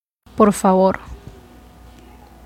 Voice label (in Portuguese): pronuncia espanhol por favor